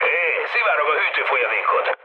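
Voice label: Hungarian damaged water mood low, speech; War Thunder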